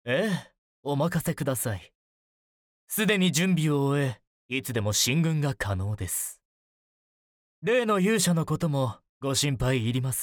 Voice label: male